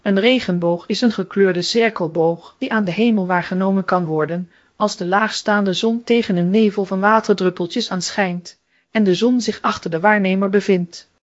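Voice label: speaker, American English; piper-voices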